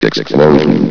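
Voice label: "explosion", English; valve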